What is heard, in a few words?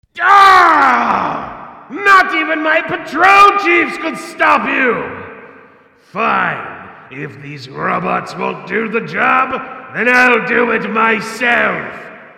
rage; gray mann